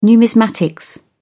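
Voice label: "numismatics", English, British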